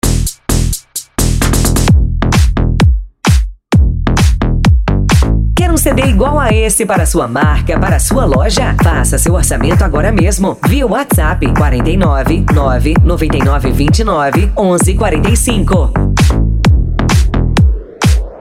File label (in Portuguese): vinheta